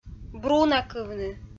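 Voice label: "брунакывны", Komi-Zyrian, female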